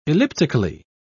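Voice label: "elliptically", British English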